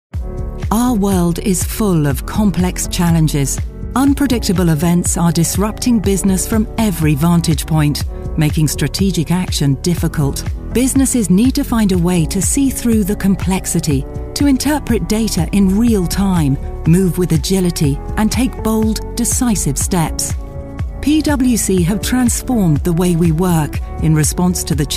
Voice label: Corporate Explainer; PWC